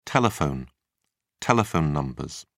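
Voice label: "tel", British English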